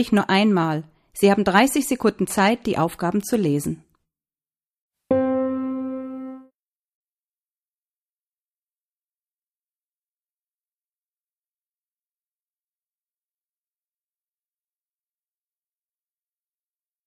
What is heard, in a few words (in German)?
Anrufbeantworter B1